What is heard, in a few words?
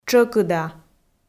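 "тшӧкыда", Komi, female; audio dict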